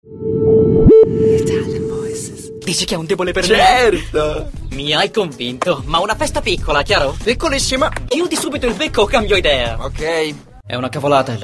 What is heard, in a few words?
ITALIAN VOICES Acting, male voices